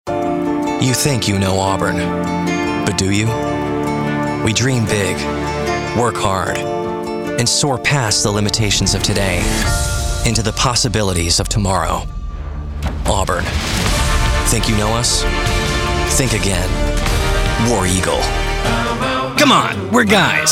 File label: Commercial